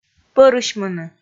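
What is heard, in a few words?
"пӧрысьмыны", Komi, female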